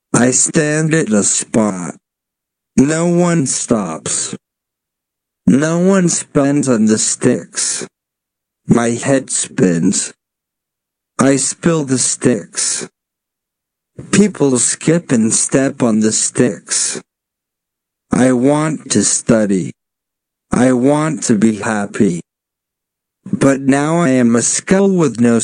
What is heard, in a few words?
Slow